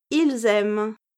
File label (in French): "ils aiment", French